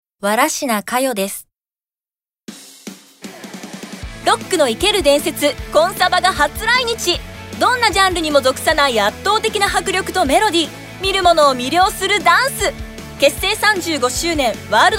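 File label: commercial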